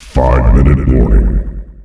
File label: 5 minute warning, announcer male; Unreal Tournament; ut2004